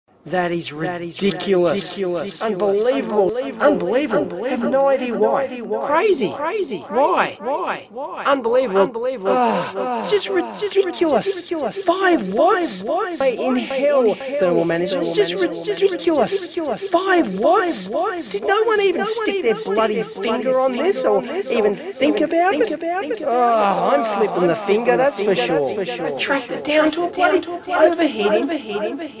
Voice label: Echo